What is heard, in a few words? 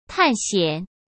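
"探险", Mandarin Chinese; hsk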